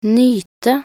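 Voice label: "nyte", Norwegian Bokmål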